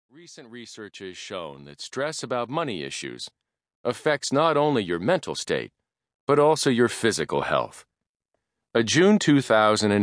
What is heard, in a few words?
audiobook